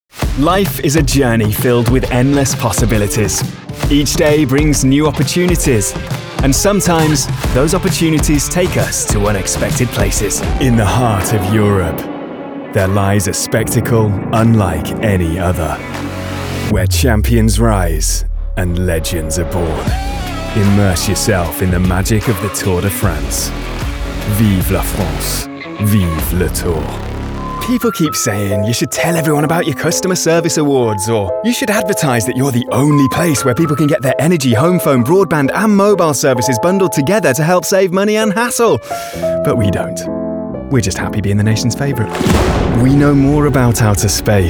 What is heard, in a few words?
Commercial